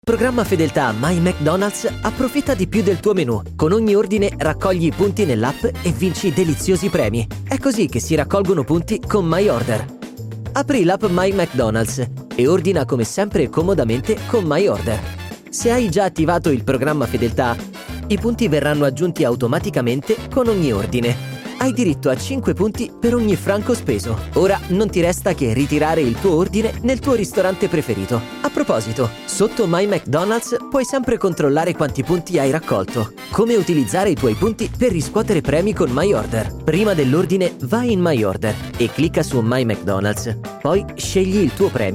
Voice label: Tutorial - Giovane, naturale; Mc Donald's; My Mcdonalds

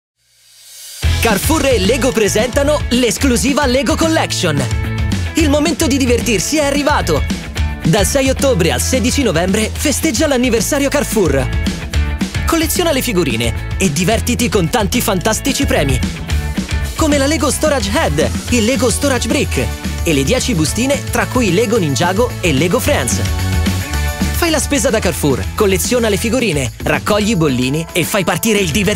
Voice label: Frizzante, Giocoso; Tv Web; Carrefour; LEGO Collection

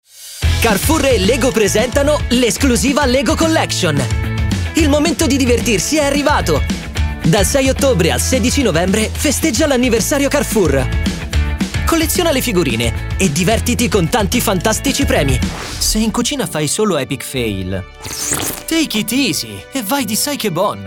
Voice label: Spot Nazionali, TV Nazionali - Stili Vari

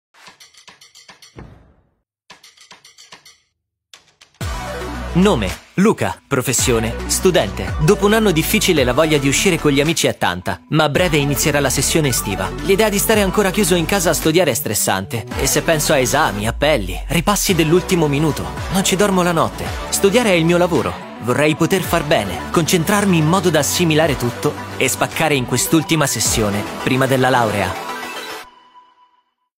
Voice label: Spot, Giovane, Naturale; Herbalife

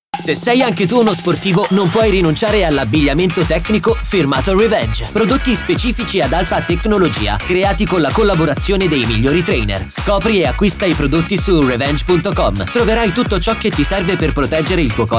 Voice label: Radio Local, Radio Locali - Stili Vari

